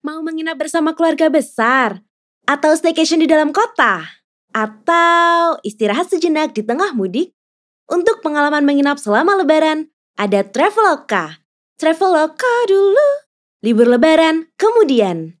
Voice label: CASUAL INDONESIA

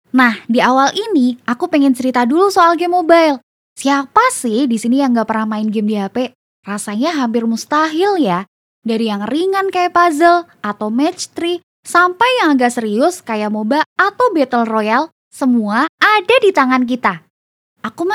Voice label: narration, Indonesia

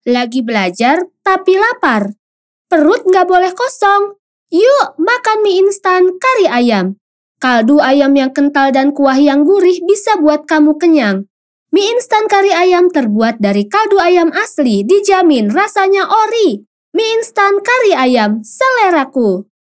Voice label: CASUAL INDONESIA

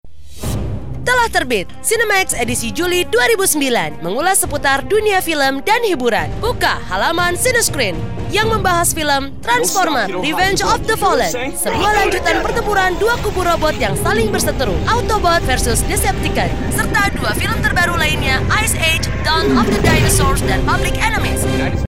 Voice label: Casual

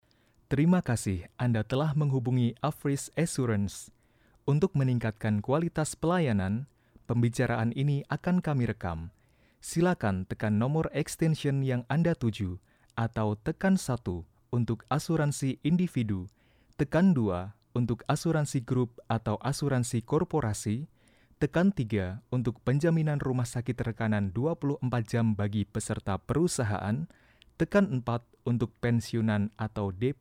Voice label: IVR

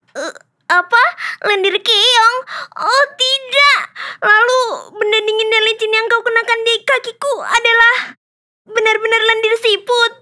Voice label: KARAKTER INDO

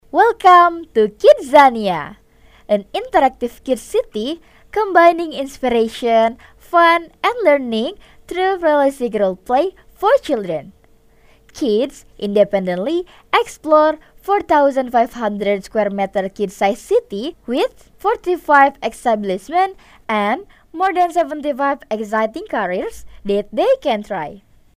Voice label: ENGLISH CASUAL

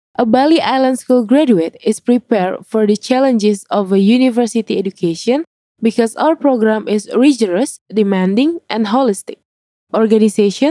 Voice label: ENG FORMAL

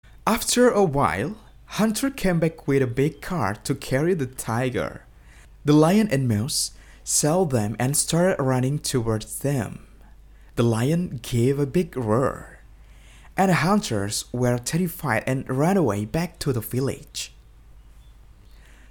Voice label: character English

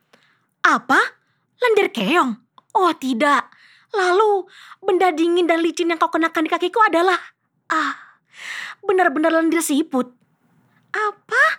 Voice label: KARAKTER, voiceover

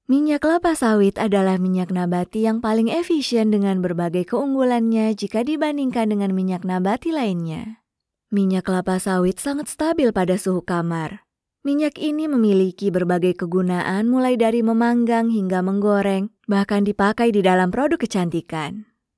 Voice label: Formal Indonesia

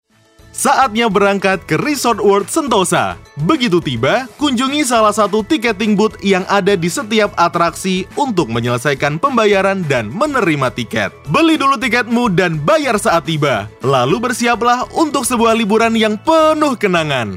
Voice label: INDO CASUAL

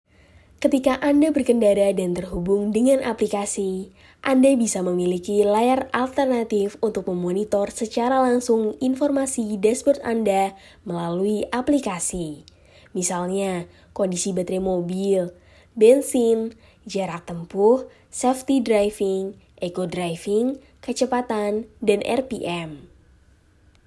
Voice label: Indonesia casual

